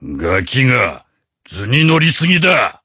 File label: voice, dubbing